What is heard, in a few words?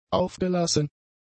"aufgelassen", German; adjectives